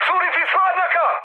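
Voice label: Serbian damaged water mood high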